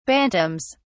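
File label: "bantams", British English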